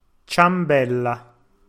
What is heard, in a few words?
"ciambella", Italian